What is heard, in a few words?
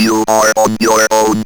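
ROBVOX, robot voice; E-Lab; X Static Goldmine 1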